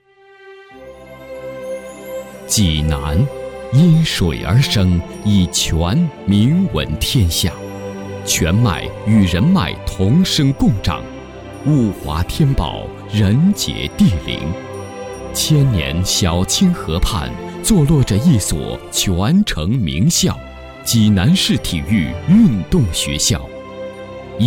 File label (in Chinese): 男 专题, 国语男声配音; 济南体校